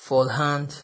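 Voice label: "fall hand", Nigerian Pidgin, male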